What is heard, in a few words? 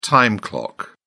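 "time clock", British English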